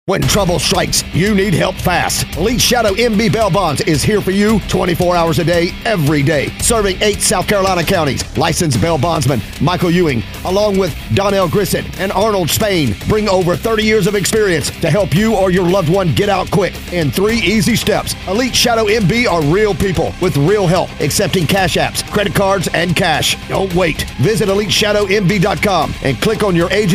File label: radio ad